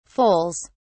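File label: "foals", British English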